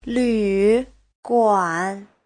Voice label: "lv3guan3", chinese; Giáo trình Hán ngữ

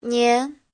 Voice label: "nián", Chinese

